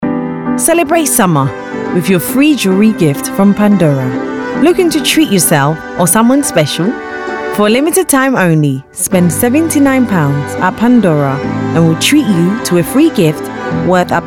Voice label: female african and english slight ghanian accent commercial; english southern accents; pandora